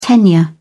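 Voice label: "tenure", British English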